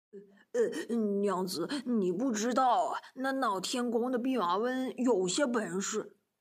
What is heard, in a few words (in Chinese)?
胖子音